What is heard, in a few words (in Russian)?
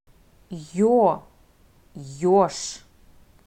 "ё", Russian